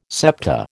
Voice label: "septa", American